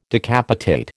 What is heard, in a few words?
"decapitate", American